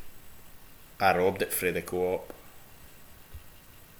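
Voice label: "frae", slang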